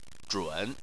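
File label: "zhun" Chinese, third tone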